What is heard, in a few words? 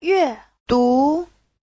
"yue4du2", Chinese